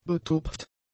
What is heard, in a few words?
"betupft", German; adjectives